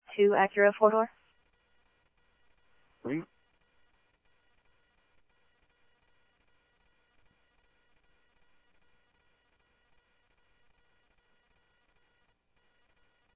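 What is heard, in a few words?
Mesa police department, scanner audio trunk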